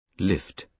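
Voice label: "lift", British English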